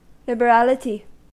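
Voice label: "liberality", American English; pronunciations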